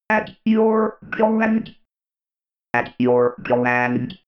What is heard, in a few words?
robot voice at your command